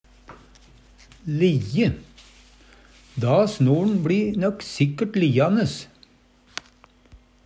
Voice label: lijje, Numedalsmål; Verb

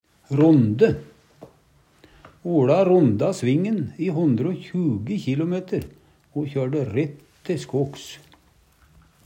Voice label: ronde, Numedalsmål

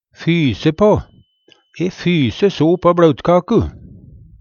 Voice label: fyse pa, Numedalsmål; E fysa so på bLautkaku.; ha lyst på

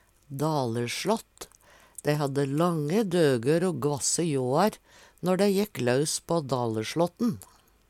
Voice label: "dalerslatten", Numedalsmål

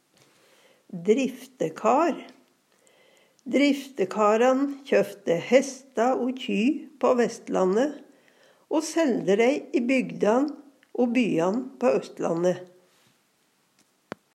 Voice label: "driftekar", Numedalsmål

